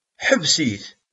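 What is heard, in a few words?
"Inimt i medden meṛṛa.", Kabyle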